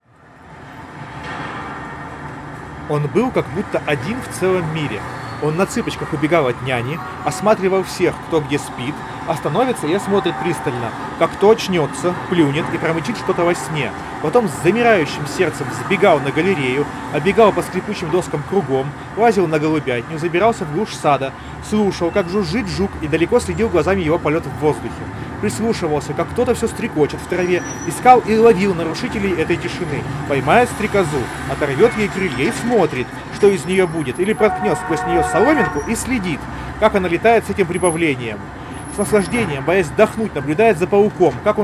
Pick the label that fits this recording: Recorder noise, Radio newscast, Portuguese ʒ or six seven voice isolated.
Recorder noise